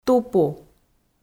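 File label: "тупу", Komi, female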